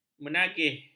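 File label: "menagih", Malay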